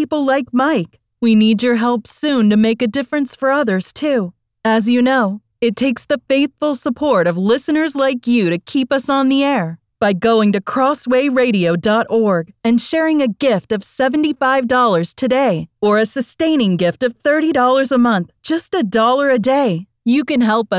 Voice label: radio; crossway